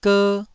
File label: "ger1", Taiwanese syllable